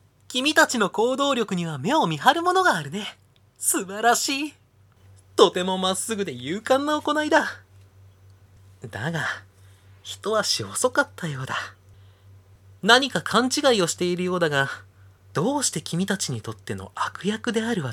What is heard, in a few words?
voice sample soft